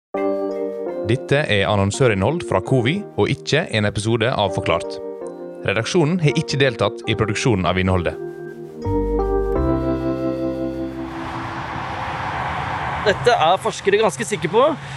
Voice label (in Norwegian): uttdrag av podcast; Cowi